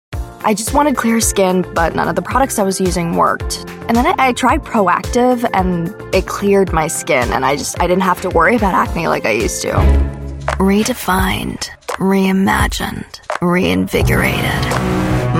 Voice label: commercial